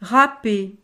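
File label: "raper", French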